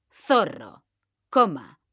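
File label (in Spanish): zorro coma, Spanish, female; mekanta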